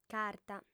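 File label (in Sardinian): "carta", female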